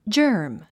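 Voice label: "germ", English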